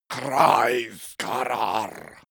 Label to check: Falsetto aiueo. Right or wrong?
Wrong